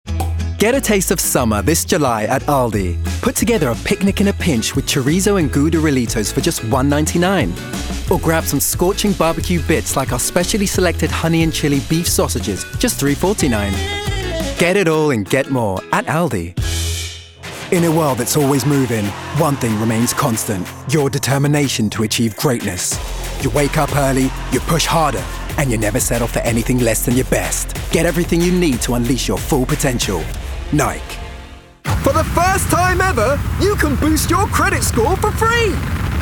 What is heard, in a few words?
voiceover commercial showreel, German